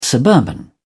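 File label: "suburban", British English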